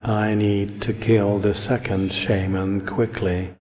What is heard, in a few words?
Voice Monolog; We Are the Dwarves